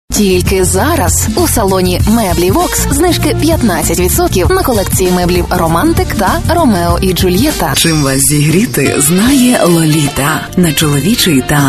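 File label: ukrainian female commercial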